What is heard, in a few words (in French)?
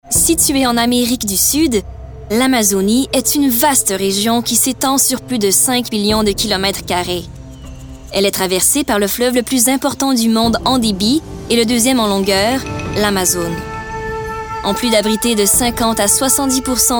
Narration Explicative Aigu Jeune adulte, voix; Amazonie